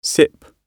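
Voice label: "sip" British English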